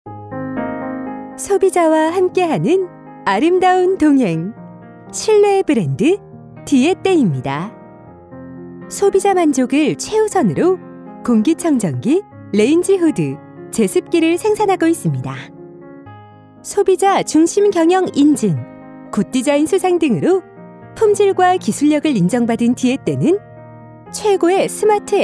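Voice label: Korean, female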